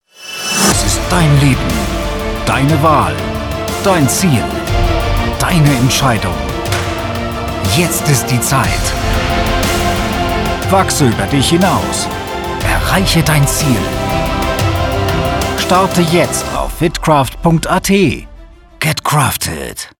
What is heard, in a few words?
Commercial; Fitcraft